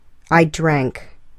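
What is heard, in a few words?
"I drank", English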